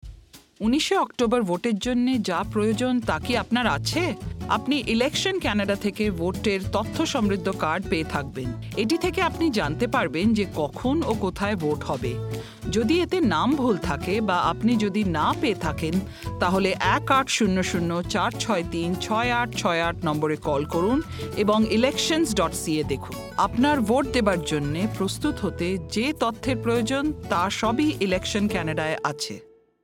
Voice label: bengali